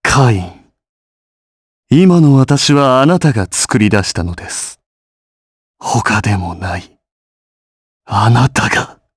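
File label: vox dialogue, voices, Japanese; Shakmeh; kingsraid audio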